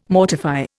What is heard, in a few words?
"mortify", Indian